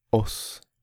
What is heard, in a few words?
"Oss", Norwegian